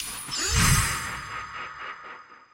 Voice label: sticky scatter female; druids dream

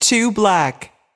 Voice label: voice over, roulette; frenchroulette3

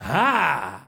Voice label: mexican ah; willyshotchillies

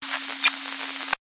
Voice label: amb Police Chatter Oct Up; hotline2

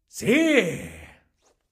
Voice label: mexican Si; willyshotchillies

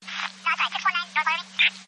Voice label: Police Chatter Oct Up; amb; hotline2

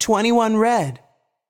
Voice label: voice Over, roulette; americanroulette3

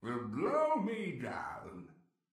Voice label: beat pirate voice; goldentavern

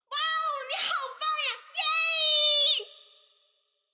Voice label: Princess happy; koi princess

